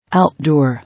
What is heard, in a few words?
"Outdoor", English